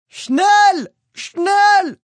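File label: Schnel Quick German, Male; Talking; Voice Box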